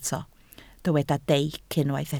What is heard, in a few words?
"deic", Welsh